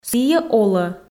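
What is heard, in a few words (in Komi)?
Komi, сійӧ олӧ, female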